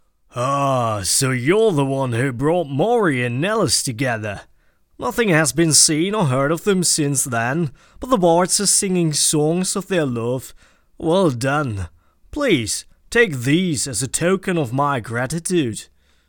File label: breton audition